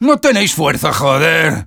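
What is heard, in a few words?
Sniper cart moving forward offense soft, Spanish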